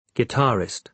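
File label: "guitarist", British English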